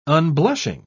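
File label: "unblushing", British English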